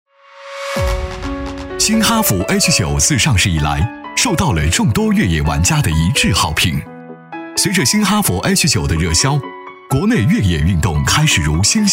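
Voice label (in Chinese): 男 专题（大气科技）; 哈弗H9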